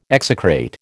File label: "execrate", American